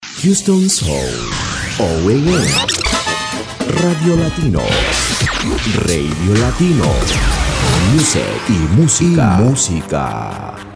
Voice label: radio latino drop